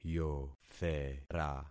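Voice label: "yothera", concatenated syllables; Affixing experiment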